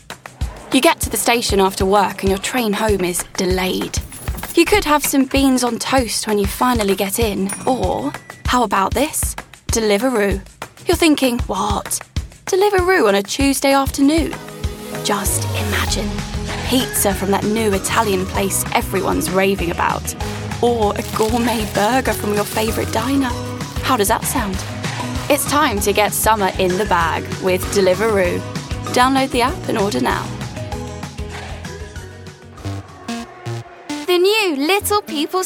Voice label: Commercial

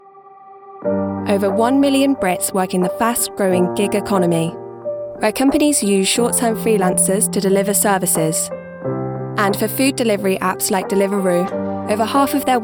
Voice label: Documentary

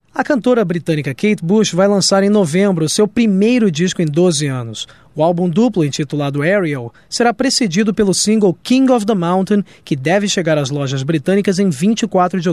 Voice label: Portuguese-Brazilian, Male, 20s-30s

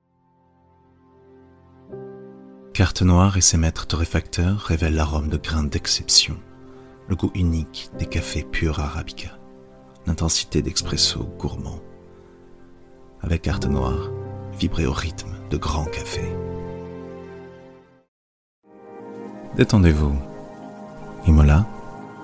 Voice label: French

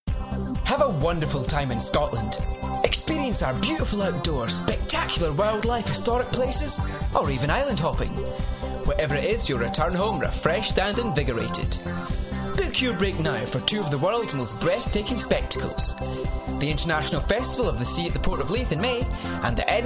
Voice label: Scottish

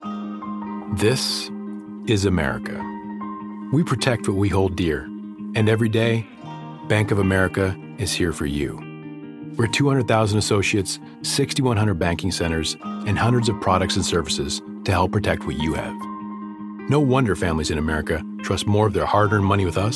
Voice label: Commercials

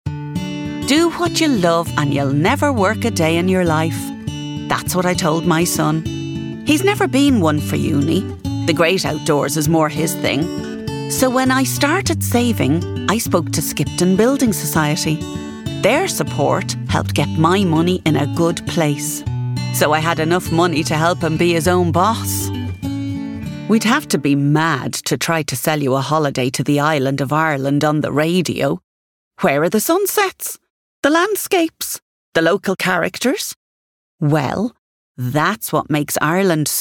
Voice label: commercials

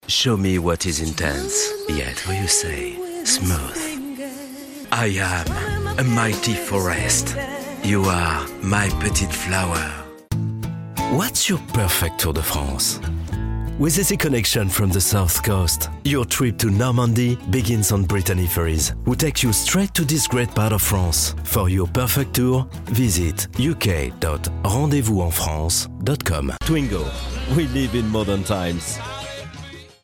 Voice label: English, French, 30’s-40’s, voiceover

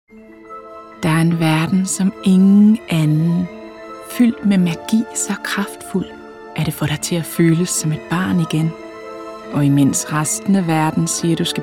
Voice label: Danish

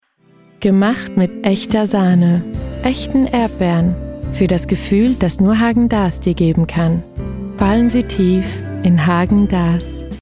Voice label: German

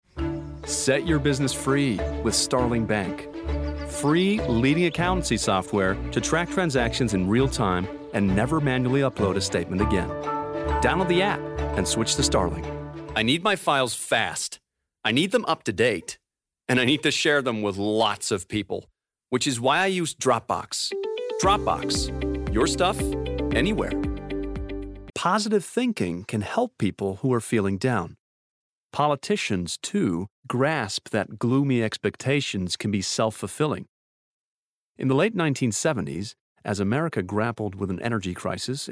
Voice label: English

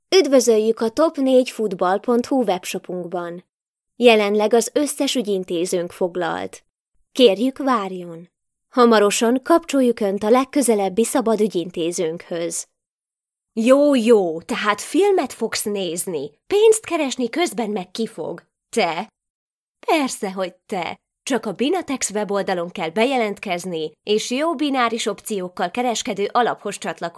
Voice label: Hungarian

